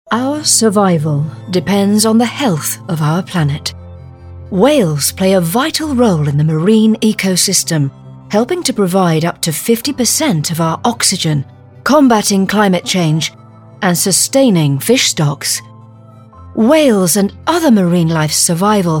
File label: Narration